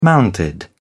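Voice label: "mounted", British English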